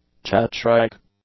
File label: "Chat-Shrike", English; nouns